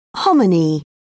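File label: "hominy", British English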